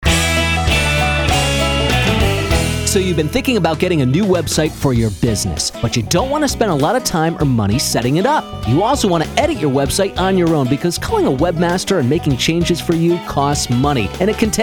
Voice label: Radio Ad; RocketFusion; Montague WebWorks